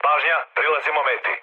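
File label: Serbian near waypoint mood med; speech; War Thunder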